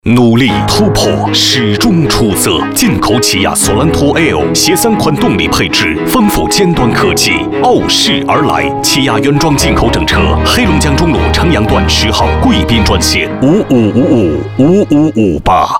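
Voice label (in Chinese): 男 广告 进口汽车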